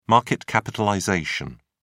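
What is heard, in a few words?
"market capitalization", English, British English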